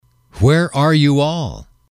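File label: where are you all, English, male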